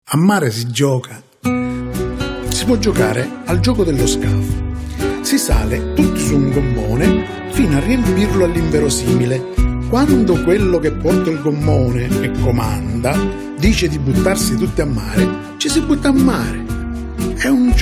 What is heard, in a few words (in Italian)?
spot radio